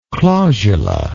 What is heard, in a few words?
"clausular", English